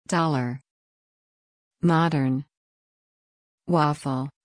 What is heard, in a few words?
Two Syllable Words Medial back a